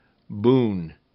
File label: "boom", Rhyming Game; Rhyme Test; zoom